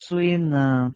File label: "swinaN", Proto Germanic